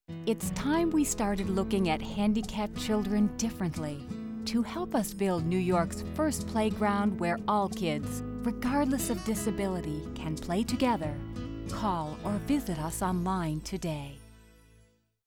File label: Medical PSA